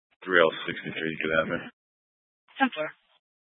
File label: Scottsdale Police, Simulcast